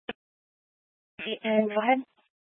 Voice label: Police Dispatch; Police 1 Dispatch